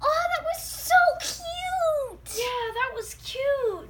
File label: Kids Reaction "So Cute"